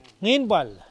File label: "ninpal", Mokilese